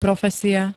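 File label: "profesia", Slovak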